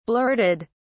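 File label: "blurted", British English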